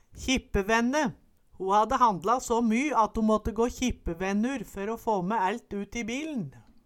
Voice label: kjippevenne, Numedalsmål

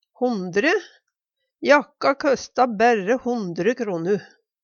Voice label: "hondre", Numedalsmål; hundre; Jakka køsta bærre hondre kronu.

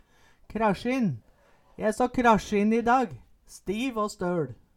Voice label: "krasjinn", Numedalsmål

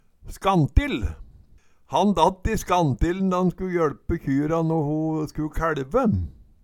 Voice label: "skantill", Numedalsmål

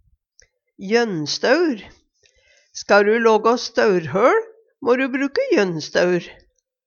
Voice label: jønnstaur, Numedalsmål

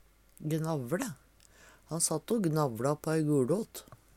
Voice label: gnavle, Numedalsmål; Verb

